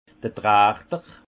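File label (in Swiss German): "trachter", Haut Rhin; vin; entonnoir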